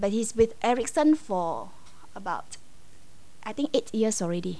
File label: Singapore English